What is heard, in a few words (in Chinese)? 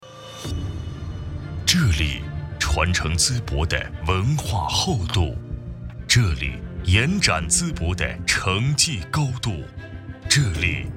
城市宣传片, 淄博, 浑厚